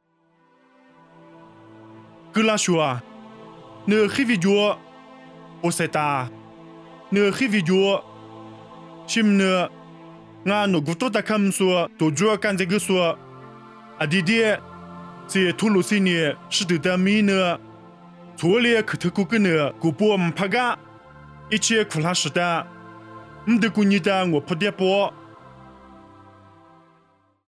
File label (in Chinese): 凉山彝语; 以色列哥拉汛; nuosu